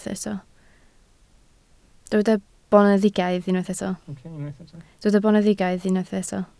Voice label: "boneddigaidd", Welsh